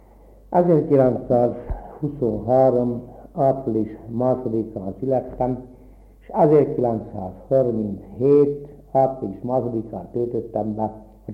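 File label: dialect collection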